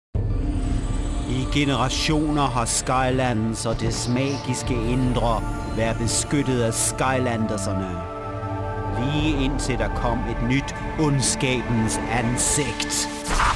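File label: Danish General; GoLocalise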